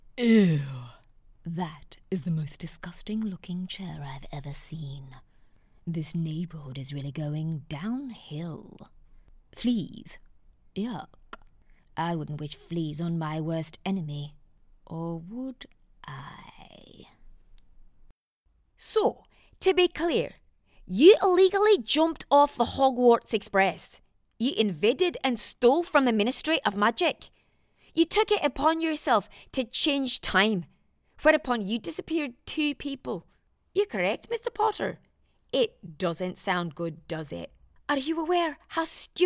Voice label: Characters and accents

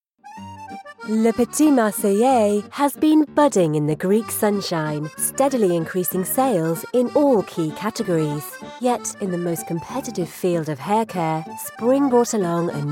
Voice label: corporate

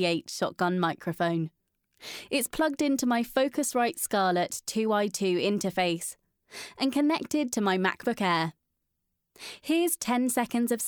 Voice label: Raw Recording Without Email

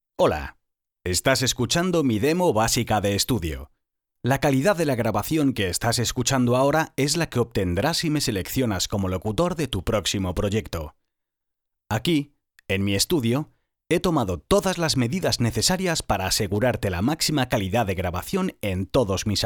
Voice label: Basic studio

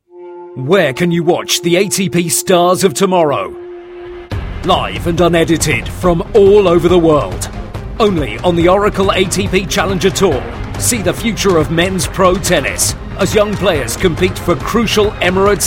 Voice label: Tennis Commercial; ATP

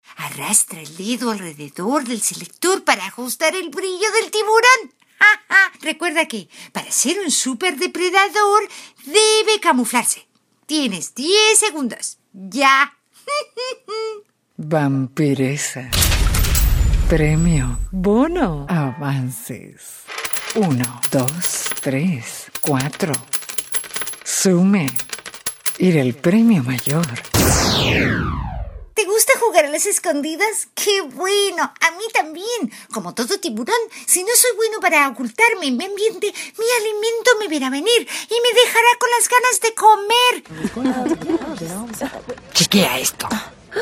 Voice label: Character Voice internet game; SeaLife Worldwide

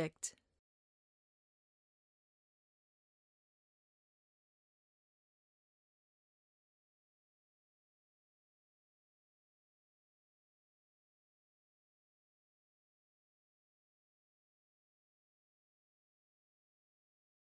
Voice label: studio sample

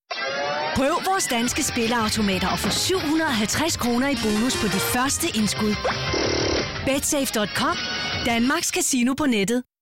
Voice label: commercial lottery

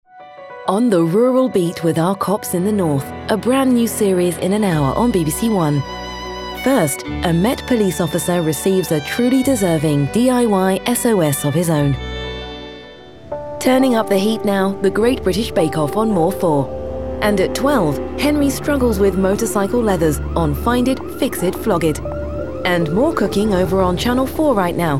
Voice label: Continuity

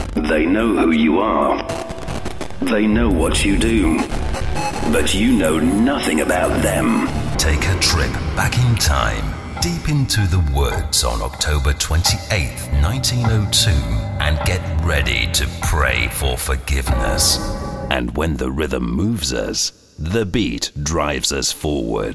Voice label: power voice

